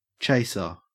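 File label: "chaser", Australian English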